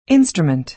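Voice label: "instrument", American English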